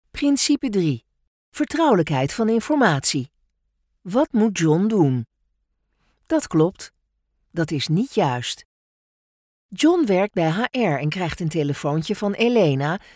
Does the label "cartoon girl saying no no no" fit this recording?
No